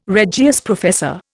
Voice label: "Regius professor", Indian

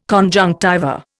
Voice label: "conjunctiva", Indian

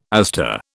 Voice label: "aster", British

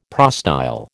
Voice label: "prostyle", American

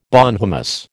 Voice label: "bonhomous", American

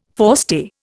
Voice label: "forestay", Indian

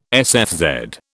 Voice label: "sfz", British

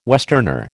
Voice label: "westerner", American

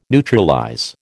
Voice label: "neutralize", American

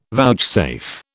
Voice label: "vouchsafe", British

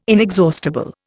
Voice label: "inexhaustible", Indian

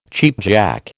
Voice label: "cheapjack", American

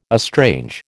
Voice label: "estrange", American

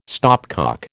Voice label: "stopcock", American

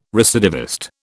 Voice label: "recidivist", British

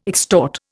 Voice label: "extort", Indian

